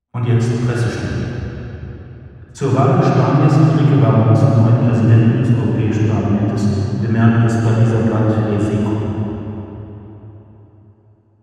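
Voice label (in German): Sprache Nachhall lang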